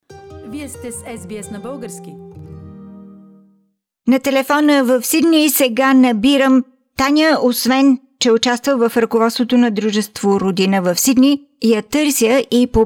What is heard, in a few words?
bulgarian; Martenitsa Festival in Sydney presents Bulgarian culture; SBS Bulgarian